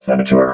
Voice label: "saboteur", robot

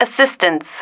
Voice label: "assistance", female

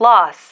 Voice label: "loss", female

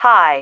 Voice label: "high", female

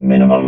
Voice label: "minimum", robot

